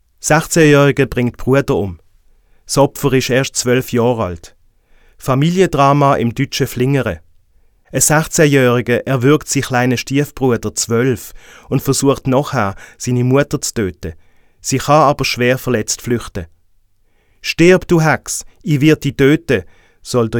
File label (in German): bunte meldung basel deutsch